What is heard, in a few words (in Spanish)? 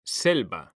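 "selva", Spanish; mekanta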